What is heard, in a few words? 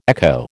"echo", American